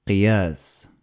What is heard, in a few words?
"Qiyas", Arabic